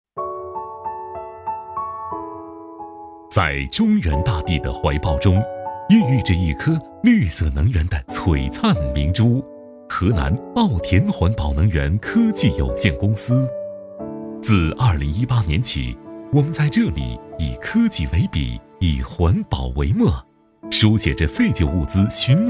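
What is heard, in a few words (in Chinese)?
男 浑厚抒情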